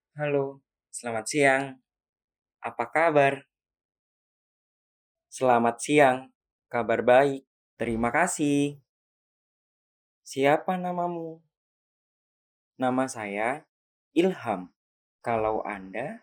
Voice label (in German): Indonesisch Lernen Audio; Werkheft